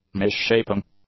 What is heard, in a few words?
"misshaping", English; adjectives